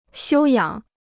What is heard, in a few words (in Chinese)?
"休养", Chinese